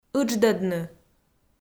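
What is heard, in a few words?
"ыдждӧдны", Komi, female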